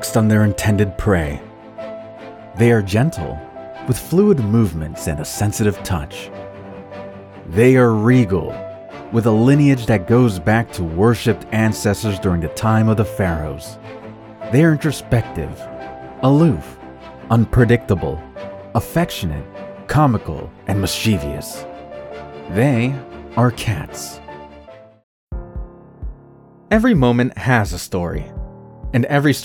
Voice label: Narration

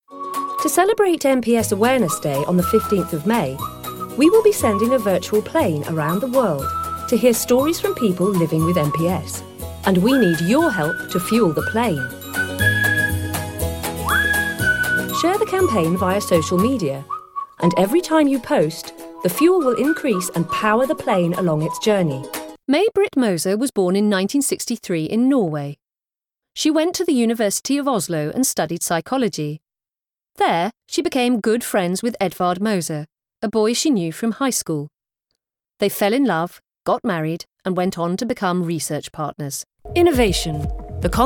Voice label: Narrative Audio

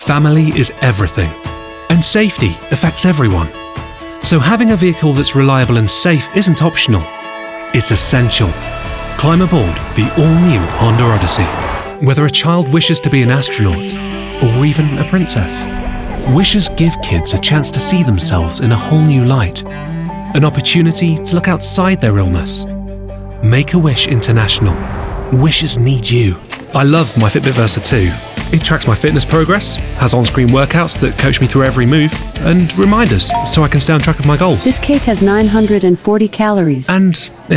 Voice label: commercial

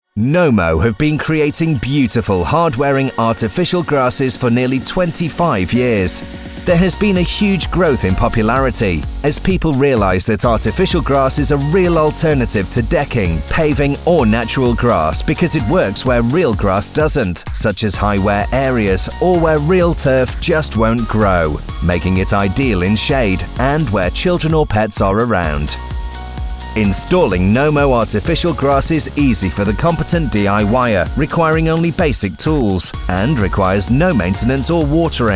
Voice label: Explainer Video

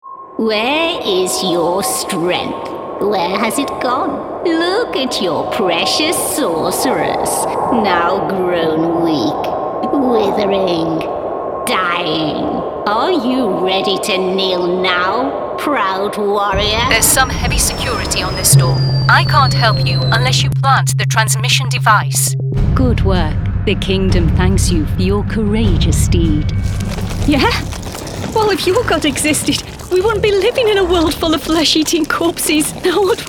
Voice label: British Female Voice Over Gaming

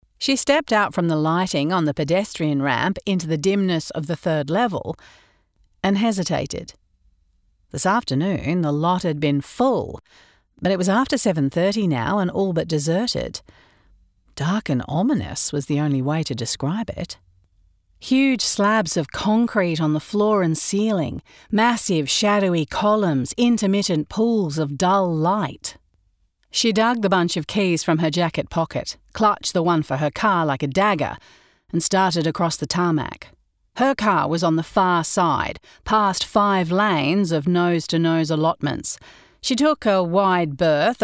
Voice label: Australian thriller contemporary 40s; Scared Yet

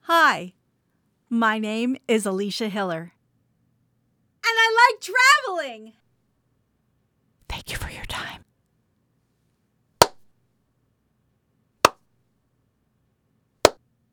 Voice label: Raw Home Studio Sample

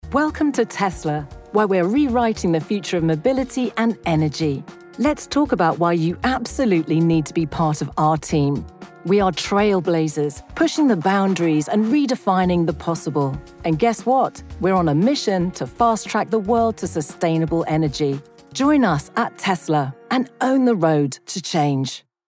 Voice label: Narration; TESLA

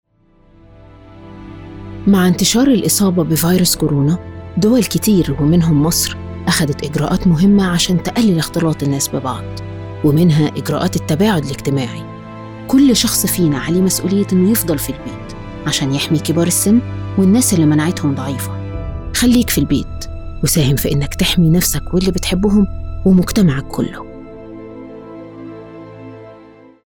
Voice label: narration Egyptian accent

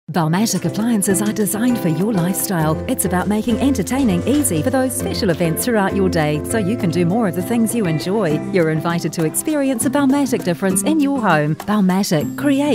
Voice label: TVC; Baumatic

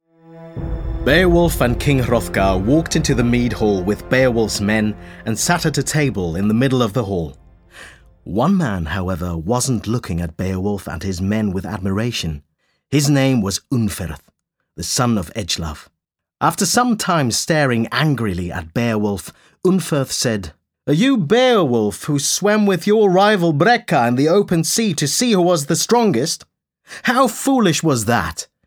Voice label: Audiobook; Beowulf

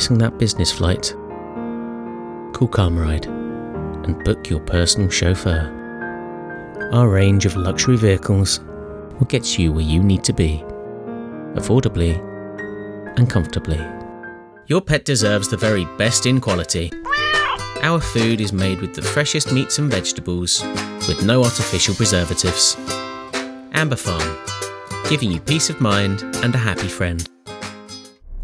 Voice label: Commercial Reel

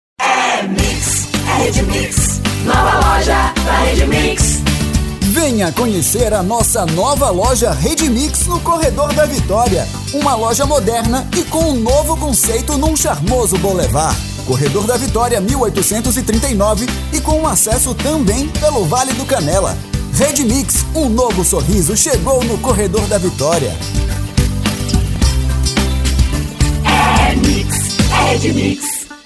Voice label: Spot; RedeMix; VITORIA

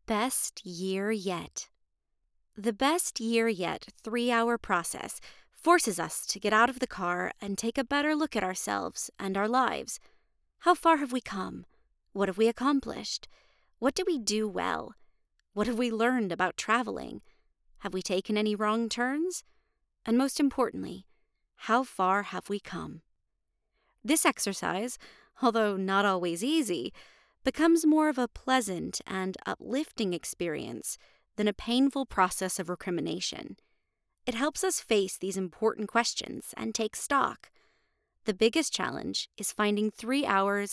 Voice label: Self Development Audiobook

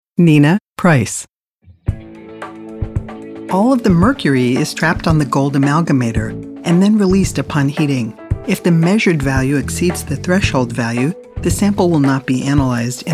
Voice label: narration

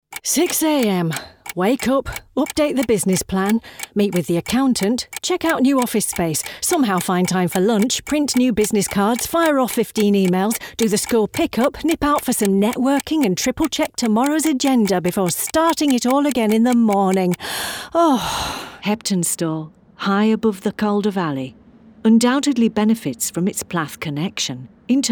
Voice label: Northern

